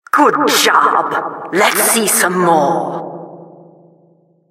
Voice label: announcer killstreak

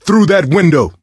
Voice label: misc directional, coach, survivor voice

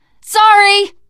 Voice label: sorry, teen girl voice; source engine; left 4 dead 2; survivor

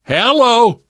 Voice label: scenario join, survivor voice; source engine; left 4 dead 2; namvet

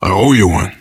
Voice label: thanks, player survivor voice biker

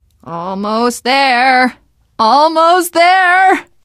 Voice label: generic responses, teen girl, voice; survivor voice

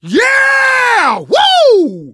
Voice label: hurrah, survivor voice

